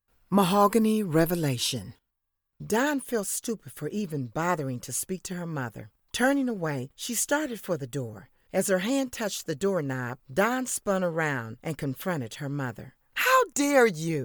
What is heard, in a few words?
audio book narrator